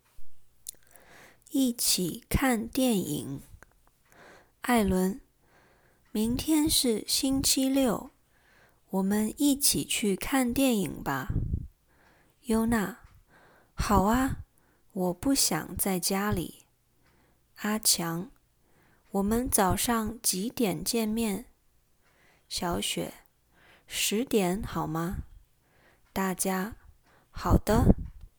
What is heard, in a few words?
conversation, mandarin; mandarin lesson materials